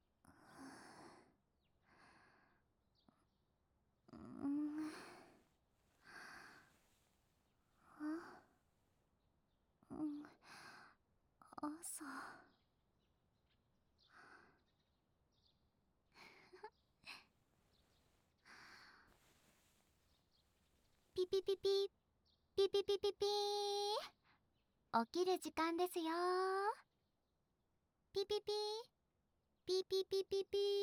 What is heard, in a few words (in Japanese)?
お姉さんアラーム; もしも〜し、お姉さんアラームですよ～